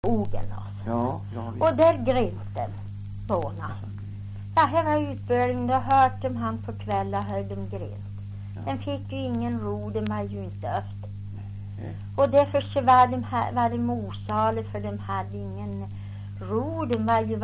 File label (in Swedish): dialekter; Folkminnen; Svenska sagor